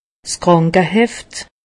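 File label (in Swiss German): "krankeheft", Alsatian; medecine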